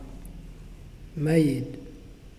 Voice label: "Tongue", Muyuw; Lae Unitech

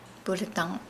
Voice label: Nukuoro; button

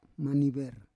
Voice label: "maniver", Roon

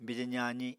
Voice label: "bije zaazi", Apatani

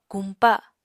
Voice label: "kumpa", Apatani

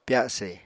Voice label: "pyase", Apatani

